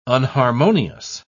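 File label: "unharmonious", British English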